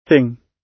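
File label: "thing", British English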